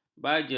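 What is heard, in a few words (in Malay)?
"baja", Malay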